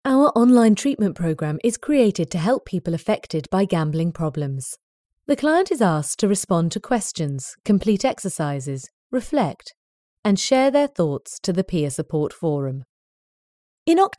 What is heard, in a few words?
Home Studio